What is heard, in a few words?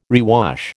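"rewash", American English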